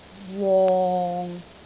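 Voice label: "wong6", Cantonese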